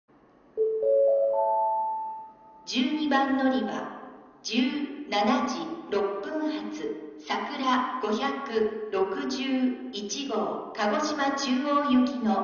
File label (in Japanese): 筑後船小屋駅 12番のりば案内放送＋遅延放送・大幅遅れ（さくら561号 鹿児島中央行き）; 九州新幹線SIRIUS（シリウス）放送